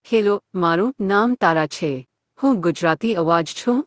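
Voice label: Gujarati, India; iOS 26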